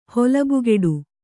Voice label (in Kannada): "ಹೊಲಬುಗೆಡು", Kannada